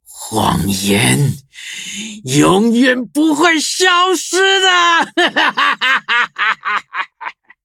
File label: defeat, zh-hant; cookie run